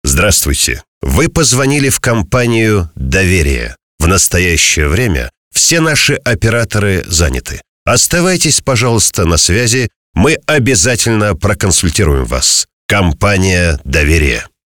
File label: M IVR